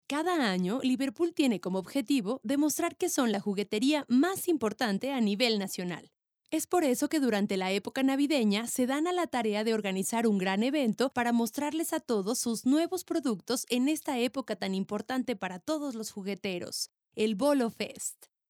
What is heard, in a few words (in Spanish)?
jugueteria, voz en off; liverpool